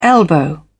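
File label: "elbow", British English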